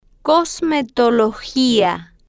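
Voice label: "cosmetologia", Spanish, female, slow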